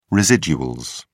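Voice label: "residuals", British English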